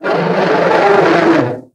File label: boss pain; dn3d r; cycloid emperor